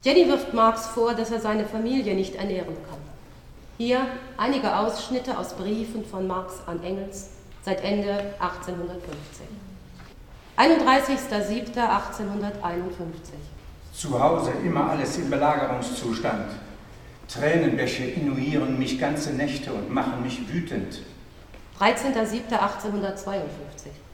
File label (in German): Szenische Lesung